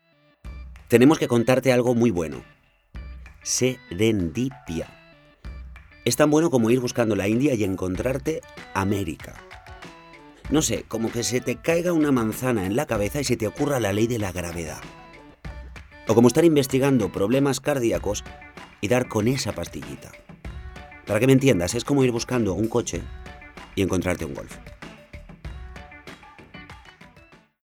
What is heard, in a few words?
male spanish car comercial